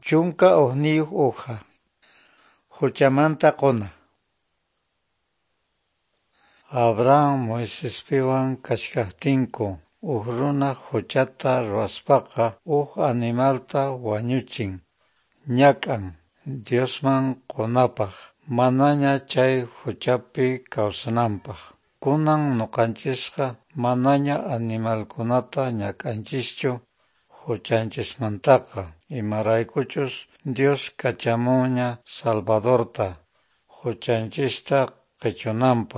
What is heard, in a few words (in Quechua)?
good news quechua norte de bolivia apolo